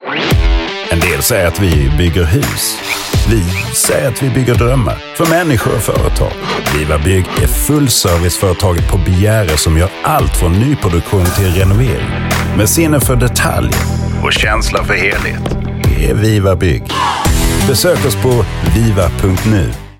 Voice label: Radio Ad Neutral South Swedish Accent; Wiwa Bygg